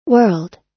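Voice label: "world", US English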